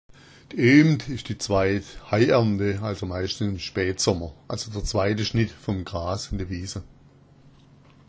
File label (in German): oehmd, schwaebisch